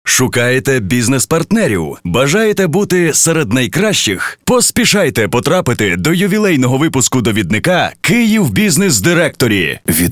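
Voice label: Ukrainian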